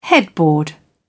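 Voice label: "headboard", British English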